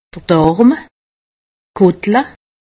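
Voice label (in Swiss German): "darm", Haut Rhin; medecine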